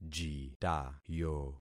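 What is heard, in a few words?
"jitayo", concatenated syllables; Affixing experiment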